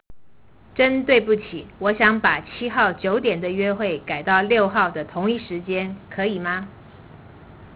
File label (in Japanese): Chugokugo